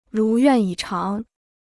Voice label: "如愿以偿", Chinese

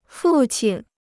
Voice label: "父亲", Chinese

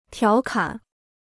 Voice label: "调侃", Chinese

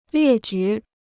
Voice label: "列举", Chinese

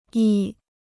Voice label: "亿", Chinese

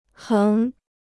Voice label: "横", Chinese

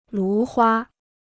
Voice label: "芦花", Chinese

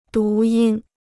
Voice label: "读音", Chinese

